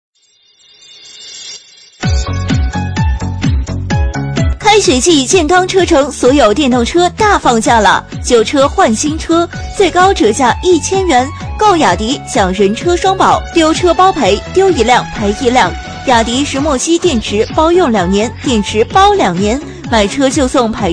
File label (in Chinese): 女 促销; 雅迪